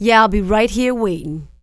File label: waiting, female; kingpin; hiredgirl